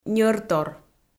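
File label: "ньӧртор", Komi, female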